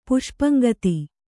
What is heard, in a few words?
"ಪುಷ್ಪಂಗತಿ", Kannada; puṣpaŋgati; noun